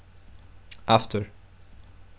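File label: "after", Portuguese, male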